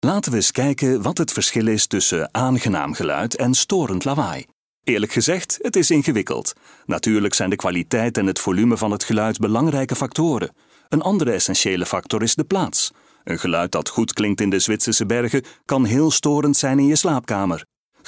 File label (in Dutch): Flemish VO regular